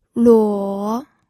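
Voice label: "luo", third tone, pinyin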